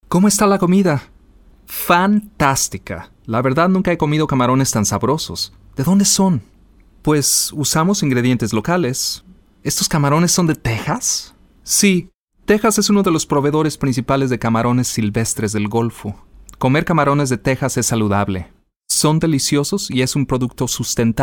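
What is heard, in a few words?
Spanish Latin American Commercial Reel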